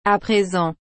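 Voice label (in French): "à présent", French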